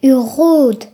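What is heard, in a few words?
"wheel", Breton; gcompris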